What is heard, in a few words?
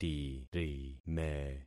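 "tirime", concatenated syllables; Affixing experiment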